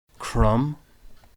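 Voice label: "crom", Irish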